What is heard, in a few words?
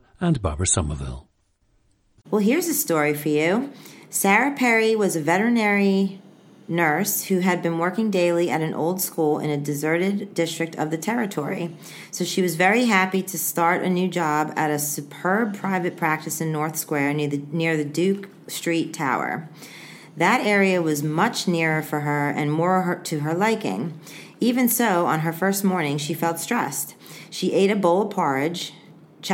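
new york, International Dialects of English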